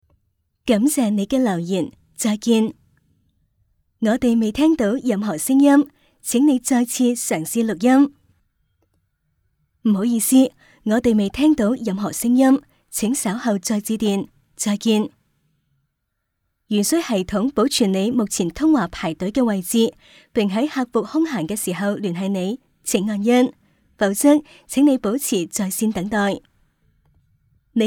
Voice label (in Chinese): 女 粤语 语音信箱